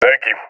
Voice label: thankful; Source Model Packs; Counter Strike Model Pack; CS2